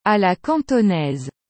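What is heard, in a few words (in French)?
"a la cantonaise", French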